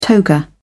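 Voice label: "toga", British English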